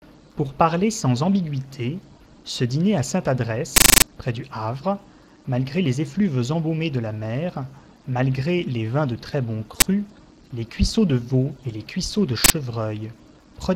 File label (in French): dictee